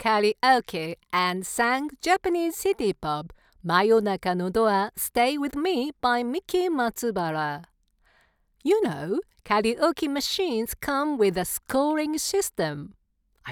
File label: Studio sample